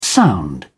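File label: "sound", British English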